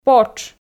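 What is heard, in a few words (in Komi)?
"потш" Komi, female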